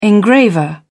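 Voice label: "engraver", British English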